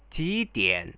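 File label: "jidian" Chinese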